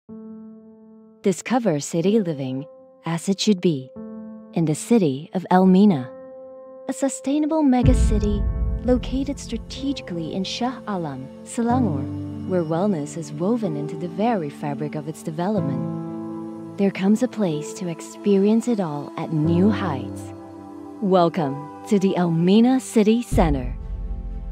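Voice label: ENGLISH - COMMERCIAL Inspirational, Soothing, Emotional; ENGLISH - COMMERCIAL (Elmina City) Inspirational, Soothing, Emotional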